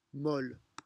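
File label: "molle", French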